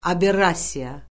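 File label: "aberrasiya", Azerbaijani; Orfoqrafiya Lüğəti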